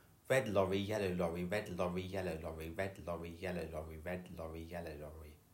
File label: english lorry fast; phon lab